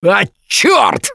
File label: Double Death Response, russian, Survivor Voice; Gambler